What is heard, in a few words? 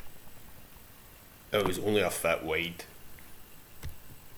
"fit", slang; Ah huvnae seen ye aboot